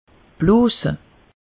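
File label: "bloose", Alsatian; meteo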